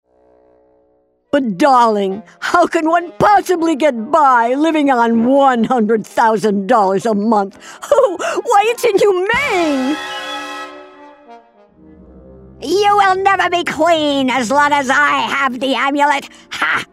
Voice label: Cartoon